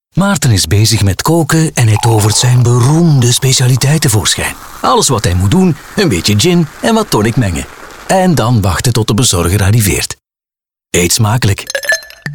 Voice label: Gordons Gin Commercial